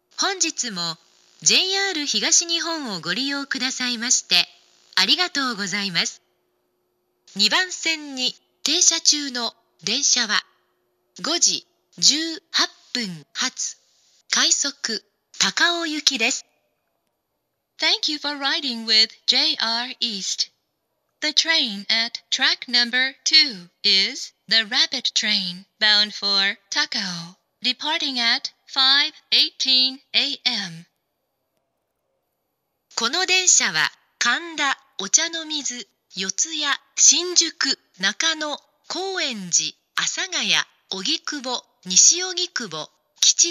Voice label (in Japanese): 出発予告放送, 発車メロディー; ２番線出発予告放送; 東京; 管理名称は「ＪＲＥ‐ＩＫＳＴ‐０１６-０１」です。「中央快速線上り新汎用曲」です。